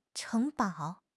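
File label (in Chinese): "城堡", Chinese; HSK6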